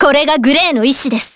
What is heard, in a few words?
Vox Skill jp; Jane; kings raid